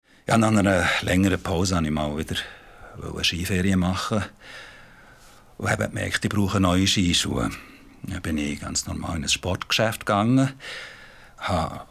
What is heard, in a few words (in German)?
Werbung Schwiizerdütsch; LOFT VOICES